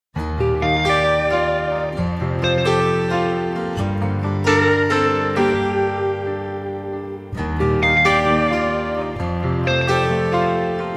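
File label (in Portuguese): aniversario genro feminino, telemensagem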